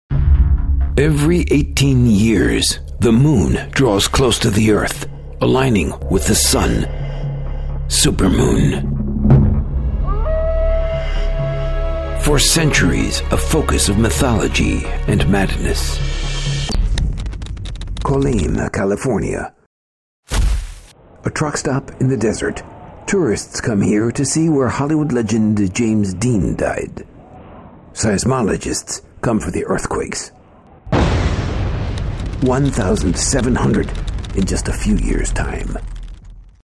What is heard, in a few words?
Trailer